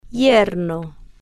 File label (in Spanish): "yerno", Spanish